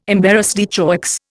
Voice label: "embarras de choix", Indian

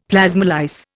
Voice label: "plasmolyse", Indian; Dictionary

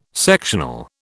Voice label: "sectional", British

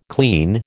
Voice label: "clean", American

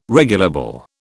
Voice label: "regulable", British

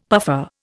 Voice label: "puffer", Indian; Dictionary

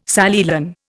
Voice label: "Sally Lunn", Indian

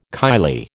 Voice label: "kylie", American

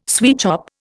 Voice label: "sweatshop", Indian

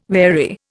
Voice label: "wary", Indian

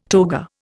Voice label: "toga", Indian

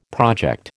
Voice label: "project", American

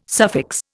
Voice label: "suffix", Indian

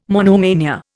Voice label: "monomania", Indian

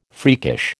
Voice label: "freakish", American

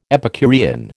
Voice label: "Epicurean", American

